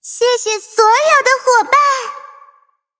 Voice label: levelup zh-hant; cookie run

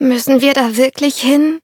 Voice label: loading, de; cookierun

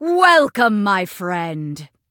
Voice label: touch, en; Cookie Run

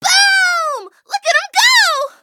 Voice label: touch, en; cookierun

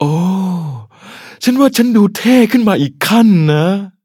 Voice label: levelup, th

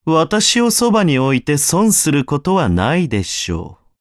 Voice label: upgrade, ja; cookie run

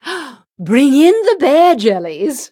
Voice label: touch, en; cookie run

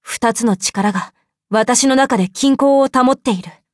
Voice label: level up, ja

